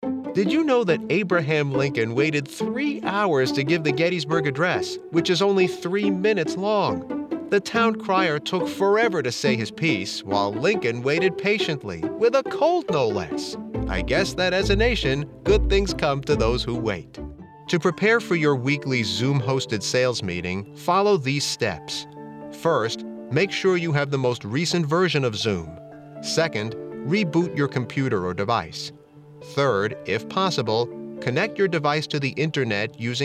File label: ELearning